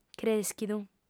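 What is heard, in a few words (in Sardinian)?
"crèschidu", female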